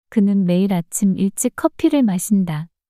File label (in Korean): 그는 매일 아침 일찍 커피를 마신다 문장 여자